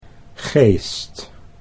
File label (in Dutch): "geest", Dutch